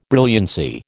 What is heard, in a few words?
"brilliancy", American